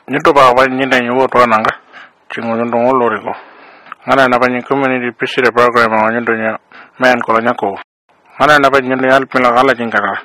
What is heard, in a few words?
Pintupi